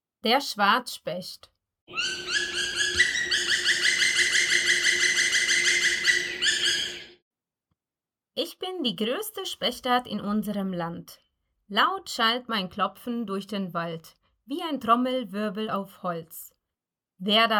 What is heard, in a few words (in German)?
Vorlesetext Steckbrief Schwarzspecht; Ich bin die größte Spechtart in unserem Land.; vogelstimmen; kinder